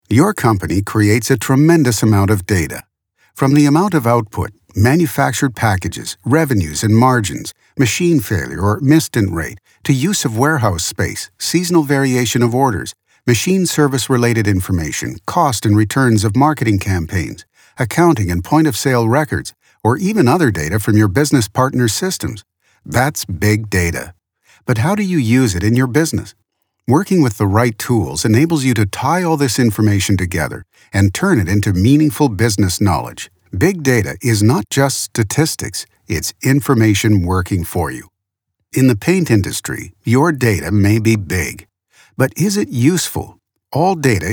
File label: english male